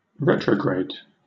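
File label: "retrograde", British English; pronunciations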